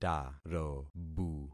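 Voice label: "tarobu", concatenated syllables; Affixing experiment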